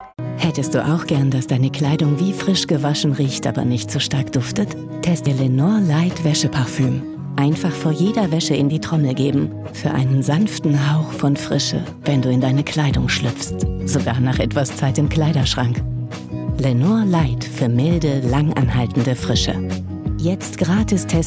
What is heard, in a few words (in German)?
Spot, Sprecherin; warm & weich; LENOR LIGHT; DACH